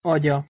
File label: "ajja", Proto Germanic